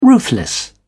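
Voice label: "ruthless", British English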